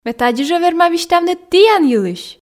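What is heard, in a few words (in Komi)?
ме тадзи жӧ верма висьтавны тіян йылысь, Komi, female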